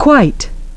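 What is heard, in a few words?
"quite", English; kwaɪt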